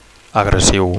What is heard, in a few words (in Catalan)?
"agressiu", Catalan; aggressive